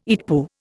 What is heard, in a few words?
"EETPU", Indian